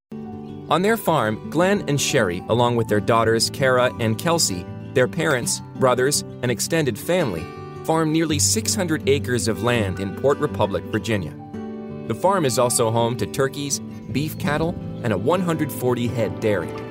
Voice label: Drama, English; MAN